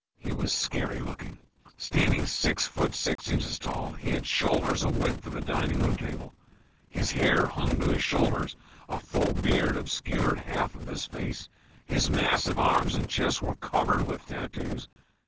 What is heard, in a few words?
male clean